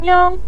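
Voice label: "niao" neutral tone, Mandarin Chinese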